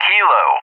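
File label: "Kilo", English; JTAC; Alphabet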